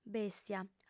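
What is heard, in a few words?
"bèstia", Sardinian, female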